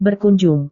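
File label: "berkunjung", Indonesian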